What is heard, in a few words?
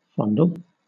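"fondle" British English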